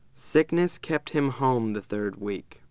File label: Clean, speech